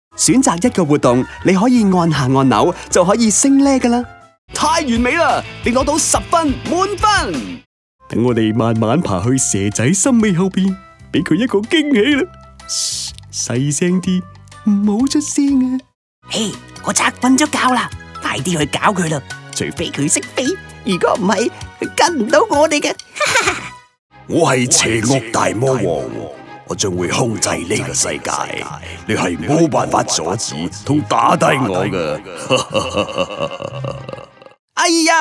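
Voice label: Cantonese Toys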